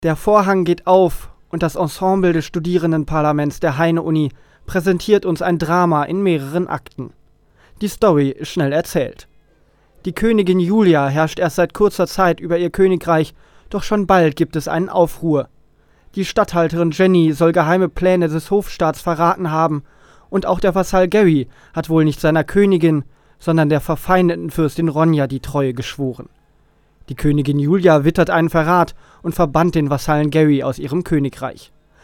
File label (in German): Kommentar; Drama im SP